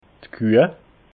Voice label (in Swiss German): "kuh", alsace